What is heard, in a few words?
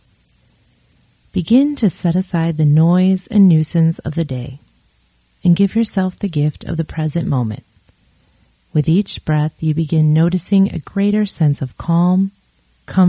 breathing